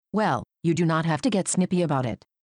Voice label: computer snippy; time-lab